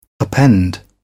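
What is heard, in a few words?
"append", British English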